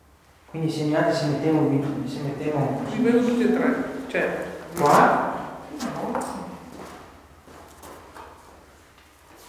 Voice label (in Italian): Traccia intera ed originale la voce di donna si intromessa alla fine della nostra con; Castello Este